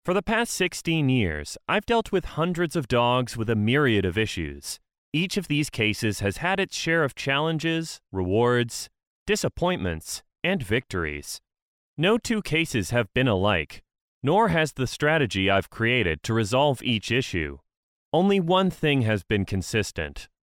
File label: english canadian voiceover locutor ingles canada